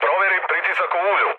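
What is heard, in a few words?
Serbian damaged oil mood low, speech; War Thunder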